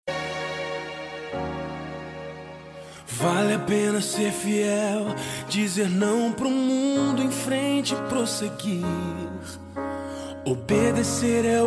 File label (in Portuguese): ANIV AFILHADA M, telemensagem aniversario afilhada